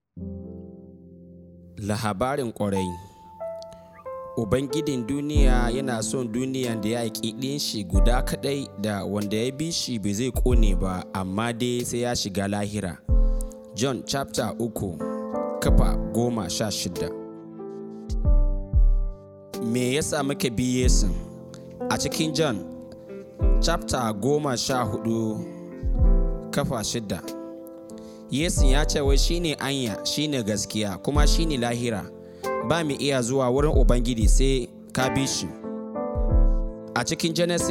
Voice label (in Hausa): Hausa version of The Gospel